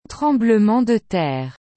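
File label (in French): "tremblement de terre", French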